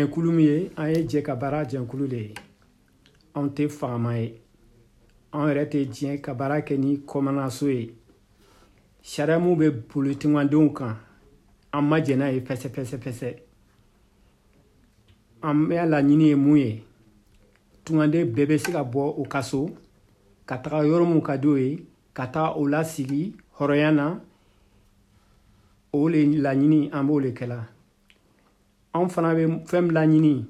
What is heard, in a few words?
malinke; Accueil; bienvenue permanences